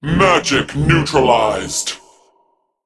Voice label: Male Mech

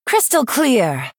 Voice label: Female Night Elf Attack

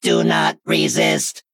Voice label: Male Mech Attack

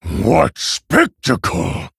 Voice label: Male Orc Ogre; Wow

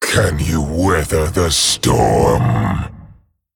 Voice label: Male Dragon Greetings

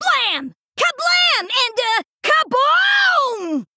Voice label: Female Goblin Play

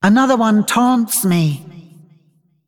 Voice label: Female Dragon ERROR TAUNT

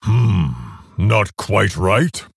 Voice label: Male Orc Oops

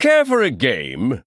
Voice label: Male Chess Piece Chess Emote Greetings

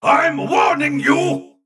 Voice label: Male Mech Attack

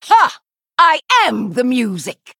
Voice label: Female Human Emote GREETINGS

